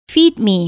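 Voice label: "feed me", English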